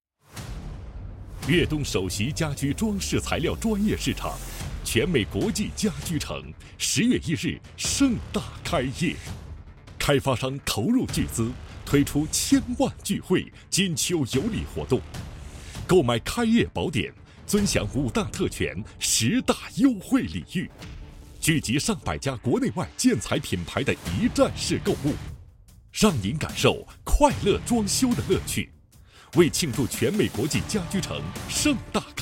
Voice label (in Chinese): 促销 家居 装饰 材料 专业 市场; 粤东首席